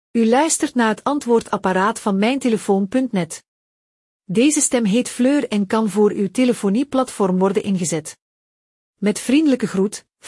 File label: Voice Prompts, BE; Voiceprompt voorbeeld